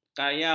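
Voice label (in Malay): "kayau", Malay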